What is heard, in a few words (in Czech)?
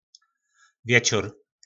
"Věčór", nářečí Po našimu; Večer